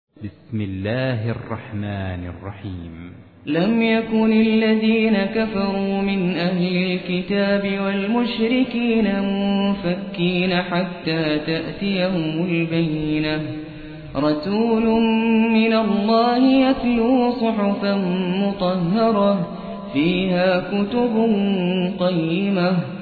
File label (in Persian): ترتیل; سوره بینه